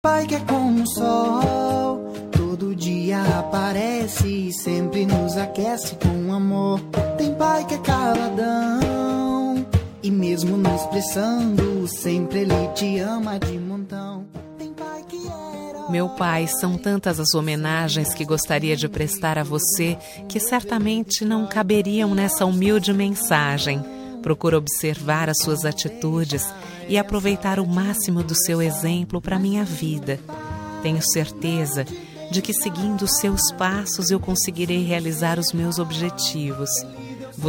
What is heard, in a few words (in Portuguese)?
dia dos pais feminina